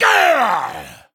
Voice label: Male Dwarf Death